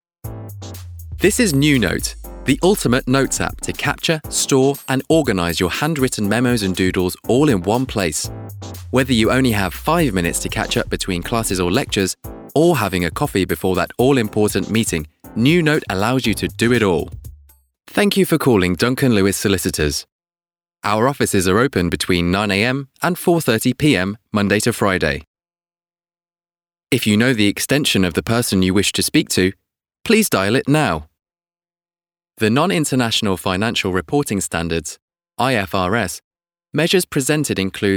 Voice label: corporate VOICE